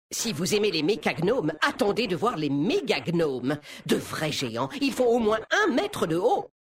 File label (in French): VF Mecagnome Homme Blague; MegaGnome